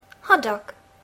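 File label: "hot dog", English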